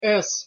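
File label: "с", Belarusian pronunciation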